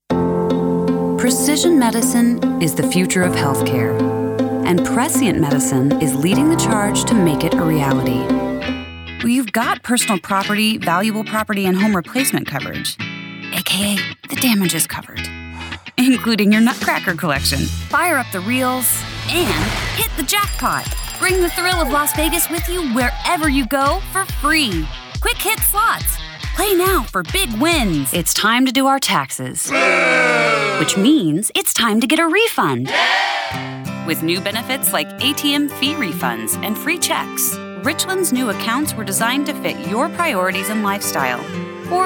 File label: Commercial